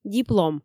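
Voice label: "диплом", Komi, female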